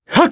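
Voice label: Vox Casting, Japanese; kingsraid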